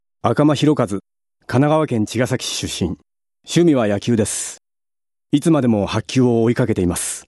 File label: freetalk, male voice